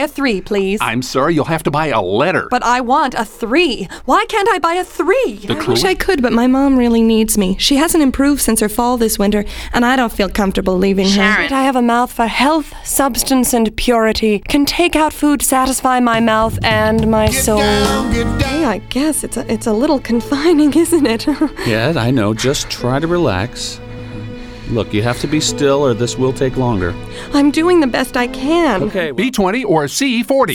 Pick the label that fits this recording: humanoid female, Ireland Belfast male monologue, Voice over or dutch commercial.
Voice over